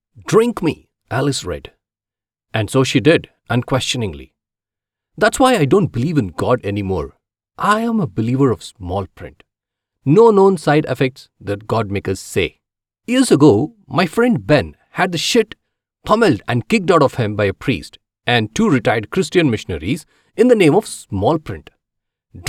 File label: Audio Book; fiction, non-fiction, or educational content